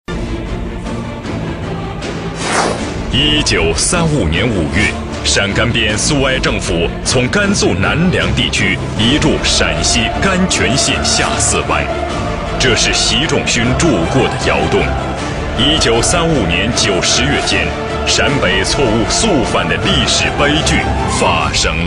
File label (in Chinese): 男 专题